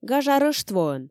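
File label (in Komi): "гажа рӧштвоӧн", Komi, female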